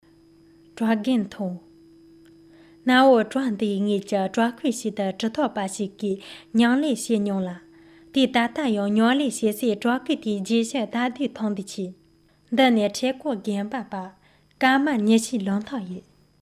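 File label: Tibetan girl; zangyu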